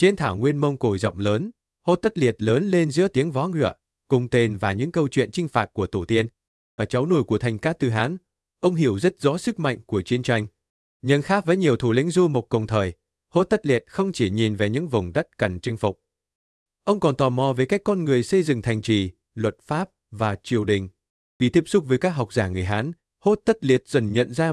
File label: narration